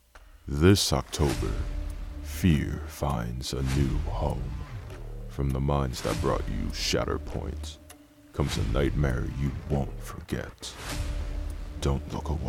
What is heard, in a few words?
Trailer Demo Reel